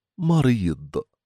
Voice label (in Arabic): "مريض"